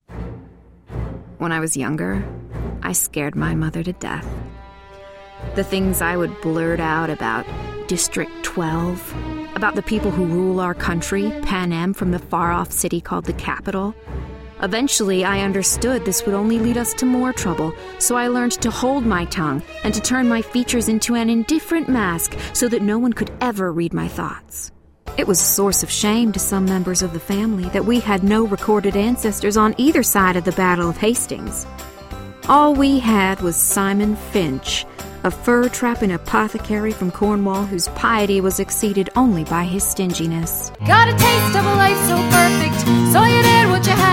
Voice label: Character